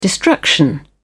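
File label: "destruction", British English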